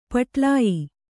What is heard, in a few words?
"ಪಟ್ಲಾಯಿ", Kannada